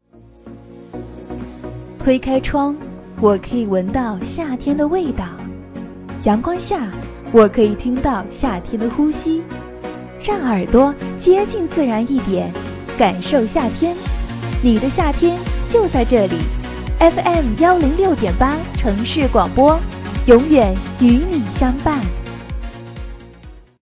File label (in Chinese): 女 片头 电台片头 亲切甜美